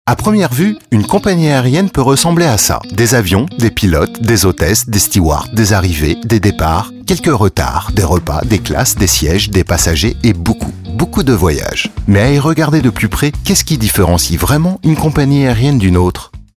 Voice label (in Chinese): 轻快